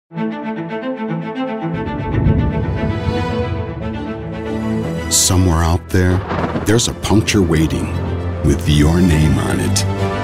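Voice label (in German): English US Sanft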